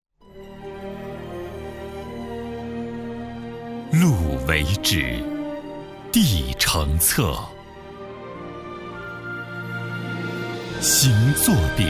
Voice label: 男 纪录频道; 国语男声配音; CCTV纪录频道

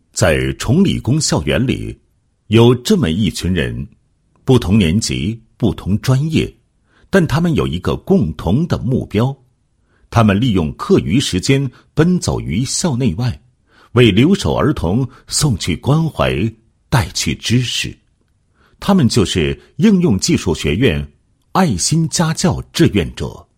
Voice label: 男 学校 配音文案; 重理工配音文案

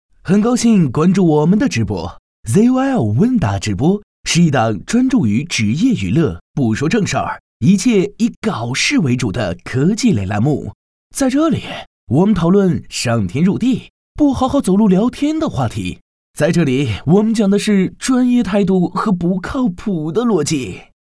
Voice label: 男 直播平台品牌宣传 问答直播宣传配音; ZOL

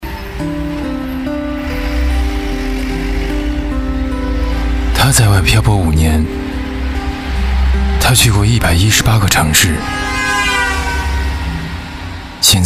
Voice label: 男 微电影

